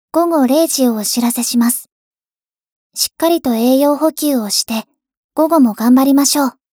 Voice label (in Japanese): 時報ボイス 電脳少女; ボイスコレクション